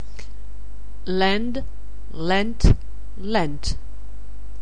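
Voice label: "lend", English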